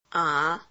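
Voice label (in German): Phoneme, chinesisch; a 3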